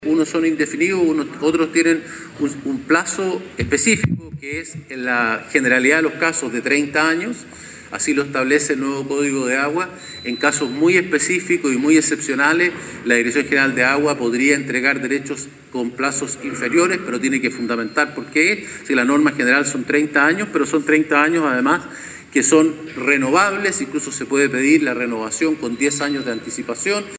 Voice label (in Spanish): cuña